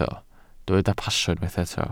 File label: "pasio", Welsh